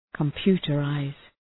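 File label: "computerize", English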